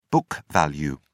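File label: "book value", British English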